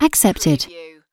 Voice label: UK female accepted; lynne publishing

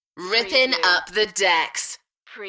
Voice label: UK female; beanstalk-audio

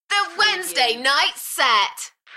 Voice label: UK female, Enthusiastic; The wednesday night set; beanstalk audio

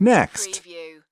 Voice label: "next", US male; lynne publishing

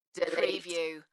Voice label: "Delete", UK female; Dalekness; beanstalk audio

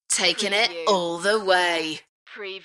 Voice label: UK female; beanstalk-audio

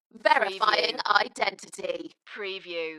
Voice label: UK female, Verifying identity, Dalekness; beanstalk audio

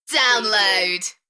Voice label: UK female "Download" Enthusiastic; beanstalk audio

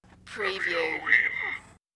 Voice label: Evil Toy Voice

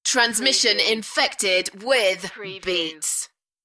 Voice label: UK female, Transmission infected with beats; beanstalk audio

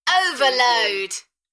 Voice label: UK female, "Overload", Enthusiastic; beanstalk audio

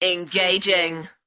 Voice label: UK female "Engaging"; beanstalk audio

